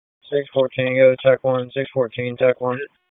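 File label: Police Southwest District Dispatch; Raleigh; p25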